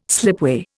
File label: "slipway", Indian